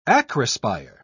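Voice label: "acrospire", British English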